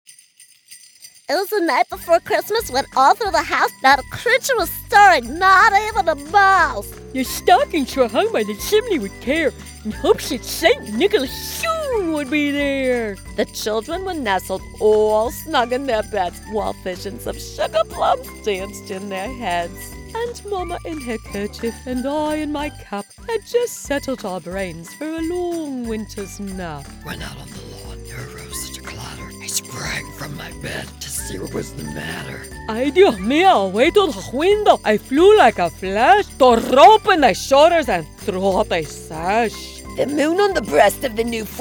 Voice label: Animation Voices Holiday Style